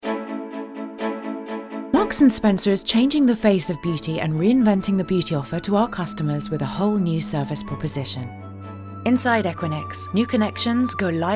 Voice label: Corporate